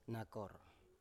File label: "nakor", Biak